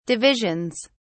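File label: "divisions", British English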